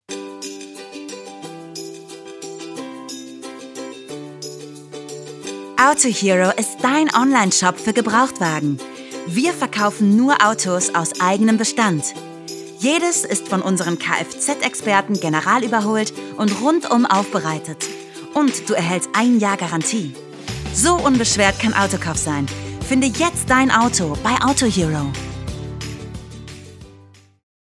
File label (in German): Werbung; Autohero